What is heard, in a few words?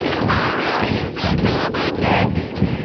vocoded snippet